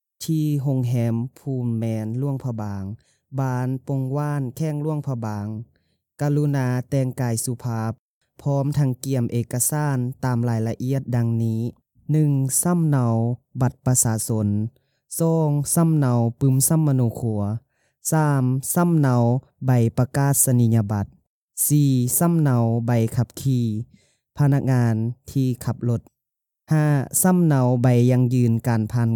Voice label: Lao male, voice over; Foreign language voice over